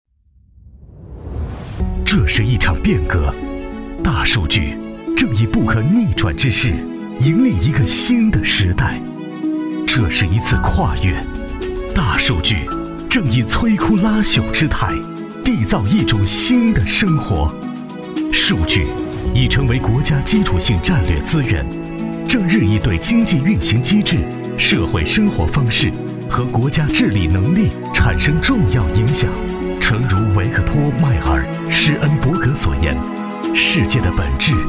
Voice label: 男国 企业宣传片 通信; 轩通逐梦

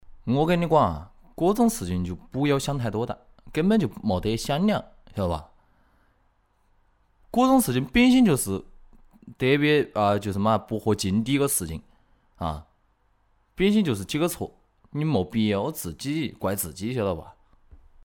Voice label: 湖南方言 男 方言 湖南衡阳话; 方言配音

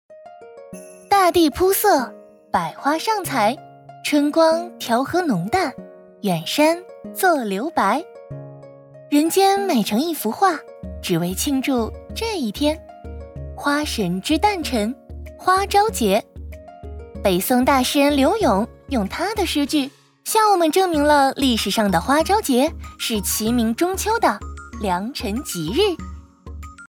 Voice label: 女 活泼类 花朝节活动介绍; 文旅配音; 模仿房琪活泼类

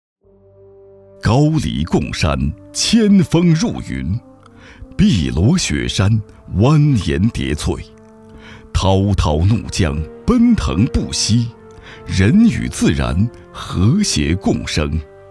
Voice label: 男 绿美城市; 泸水

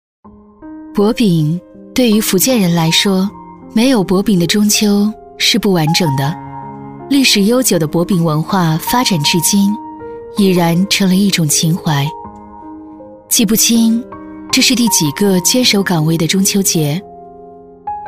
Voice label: 女 中秋 走心; 中秋节样音; 博饼

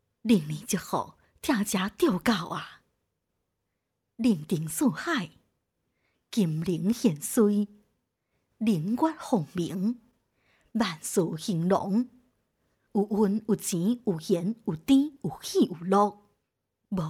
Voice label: 闽南语 女 闽南语试音 龙年祝福老年女; 方言配音

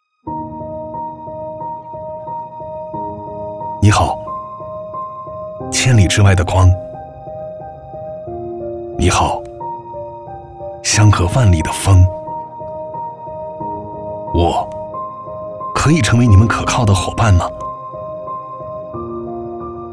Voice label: 男 品牌形象片; 走心企业形象片样音; 阳光乐充品牌形象片

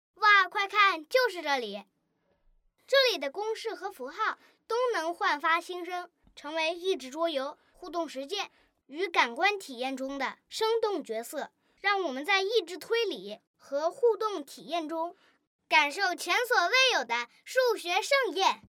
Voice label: 男真童; 儿童友好魔数馆宣传; Hape; 真童配音

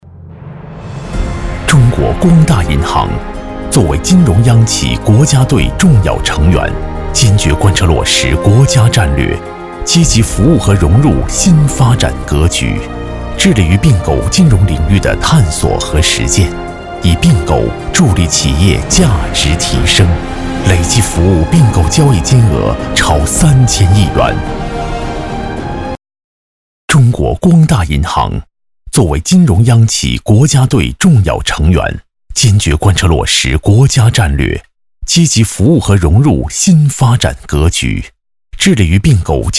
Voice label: 男 宣传片 银行 气势; 银行宣传样音; 中国光大银行（气势）

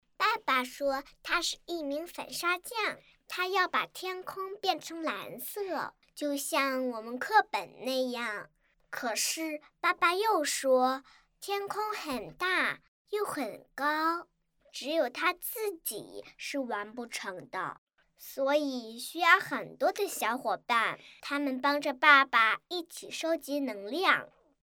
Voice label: 大音-女真童; 爸爸说; 真童配音

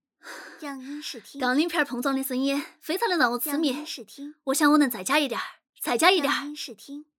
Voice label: 四川方言 女 体育; 方言配音; 四川话

